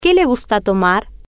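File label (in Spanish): Que Le Gusta Tomar, spanish, female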